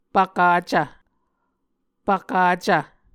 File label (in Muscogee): "pvkacv", Muscogee